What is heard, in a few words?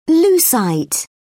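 "lucite", British English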